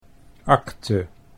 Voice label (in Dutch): "acte", Dutch